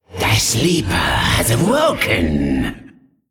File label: male qiraji